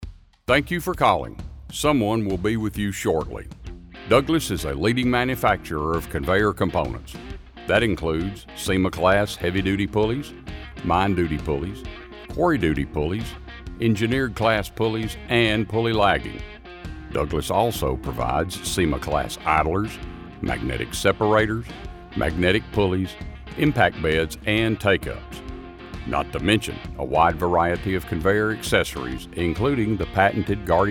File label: On Hold Message